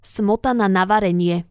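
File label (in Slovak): "smotana na varenie", Slovak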